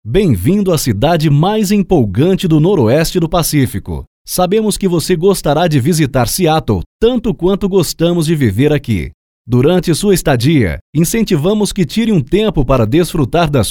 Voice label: Audio Guide PT-BR